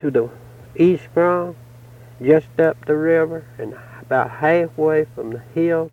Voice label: Interview with 65 year old white male Mountain Rest South Carolina, american english dialect